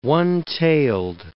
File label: "one-tailed", English